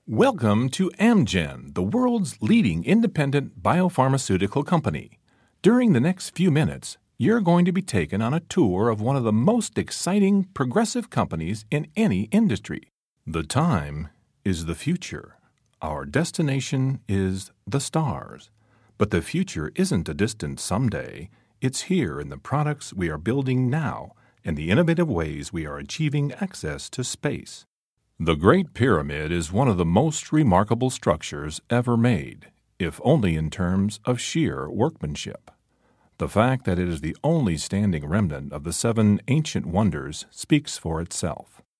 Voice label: Narration, Male voices